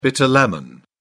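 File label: "bitter lemon", British English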